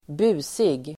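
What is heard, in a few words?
"busig", Swedish; Uttal: [²b'u:sig]; mischievous, unruly, rowdy; bråkig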